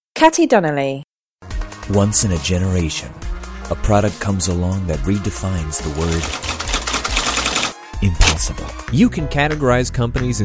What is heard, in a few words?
Commercial, voiceover